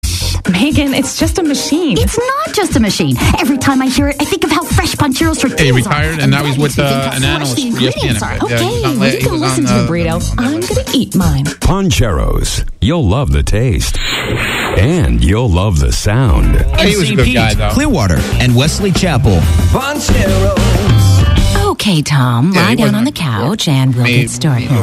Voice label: commercials